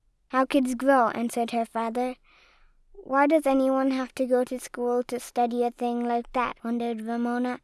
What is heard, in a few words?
clean speech reference